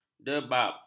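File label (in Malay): "debab", Malay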